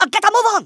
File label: "come on then", Kamikaze; Worms Armageddon